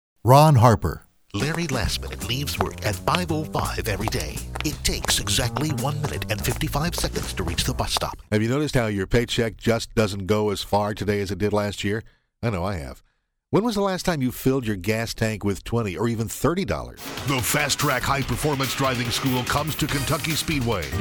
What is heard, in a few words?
commercial